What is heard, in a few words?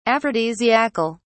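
"aphrodisiacal", British English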